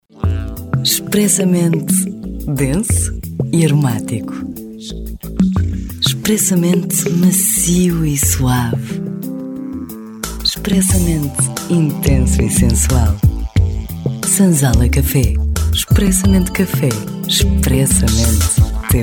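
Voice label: Portuguese, female, commercial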